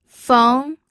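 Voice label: "feng", pinyin, second tone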